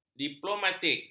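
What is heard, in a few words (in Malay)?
"diplomatik", Malay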